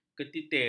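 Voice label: "ketitir", Malay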